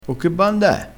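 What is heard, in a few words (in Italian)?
"banda", dialettale crespinese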